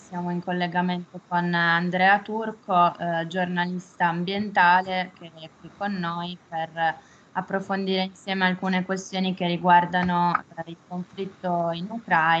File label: Meeting Recording; Ucraina guerra energetica